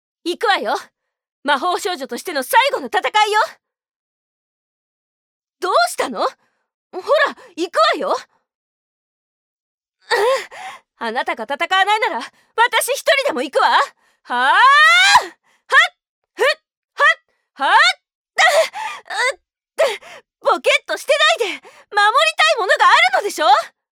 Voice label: クールビューティー

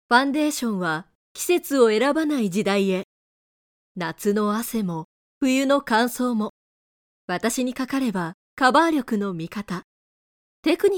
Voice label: ボイスサンプル ファンデCM

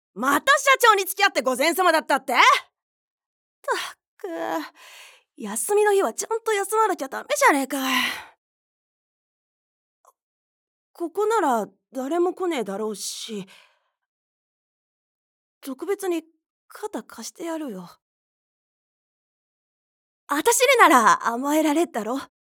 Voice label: 男まさりな女性

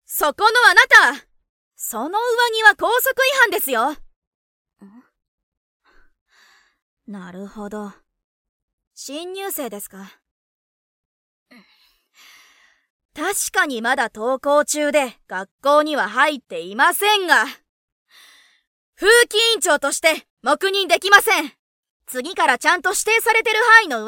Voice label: VS 女子高生 真面目

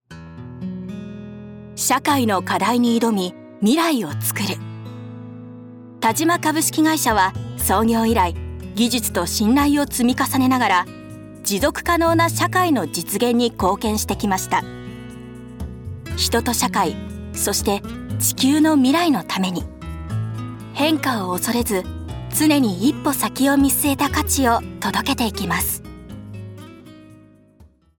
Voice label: 中高音ややフラットシリアス系VPナレ; 「田島株式会社」

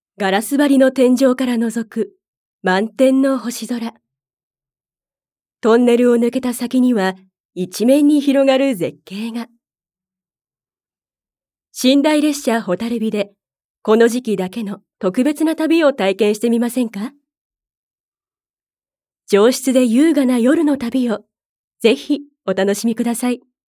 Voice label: NA 落ち着き

